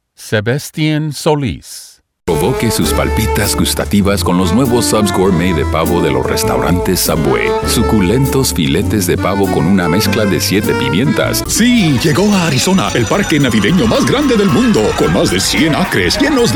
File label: spanish commercial; (I) Neutral/Mexican Spanish Speaker. Fun and unique mid-range Spanish language announcer.